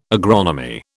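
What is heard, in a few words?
"agronomy", British English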